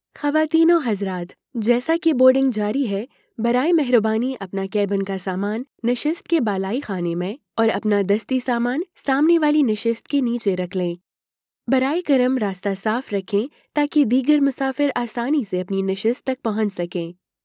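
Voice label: General, Urdu; voice demos; GoLocalise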